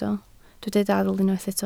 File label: "dadl", Welsh